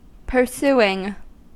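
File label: "pursuing", US English; pronunciations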